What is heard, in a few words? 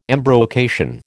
"embrocation", American